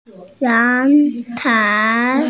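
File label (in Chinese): "yang2tai2", Mandarin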